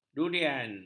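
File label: "durian", Malay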